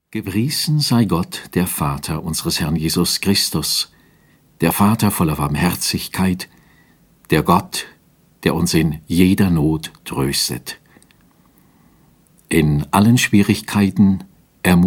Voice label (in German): Lesung